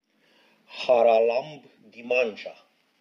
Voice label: Interview